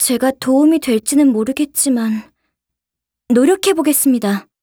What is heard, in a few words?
announcer cheer